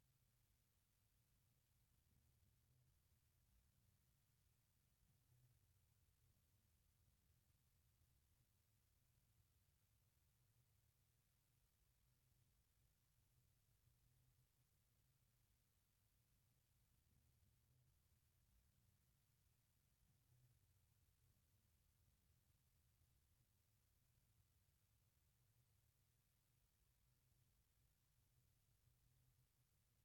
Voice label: french directions part b